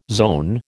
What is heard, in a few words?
"zone", American